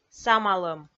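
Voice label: "самалӧм", Komi, female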